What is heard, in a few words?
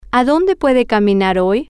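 To Where Can You Walk Today, Spanish, female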